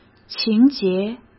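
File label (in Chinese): "情节", Mandarin Chinese; chinese-flashcards; hsk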